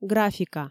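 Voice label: "графика", Komi, female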